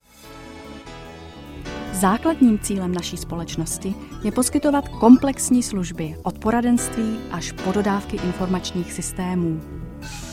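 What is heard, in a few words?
Czech General; GoLocalise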